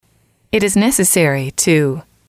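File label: it is necessary to, English, female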